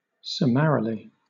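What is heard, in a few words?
"summarily", British English; pronunciations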